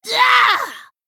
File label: Female Troll Death